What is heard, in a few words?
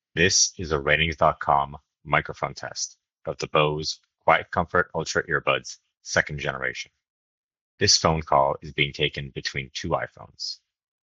mic call recording, earbuds; Bose QuietComfort Ultra Earbuds (2nd Gen); We also took a recording of a call between two iPhones using the earbuds' microphone.